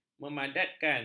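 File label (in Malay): "memadatkan", Malay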